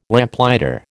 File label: "Lamplighter", American